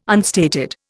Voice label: "unstated", Indian